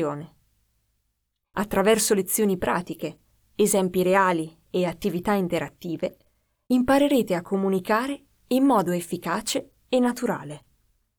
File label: E-learning video internal usage Italian Voices; Italian Audition